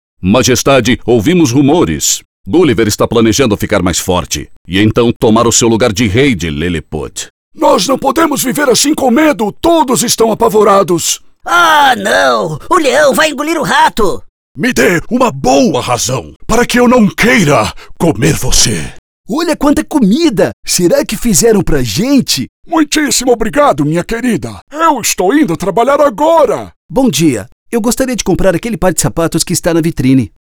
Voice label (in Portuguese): VOZES DUBLAGEM